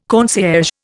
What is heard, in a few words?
"concierge", Indian